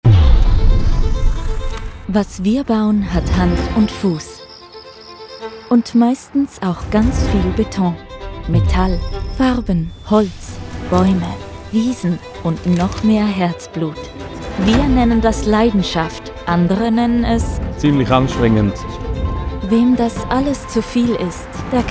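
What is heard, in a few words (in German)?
OFF CH DE, Swiss German voice-over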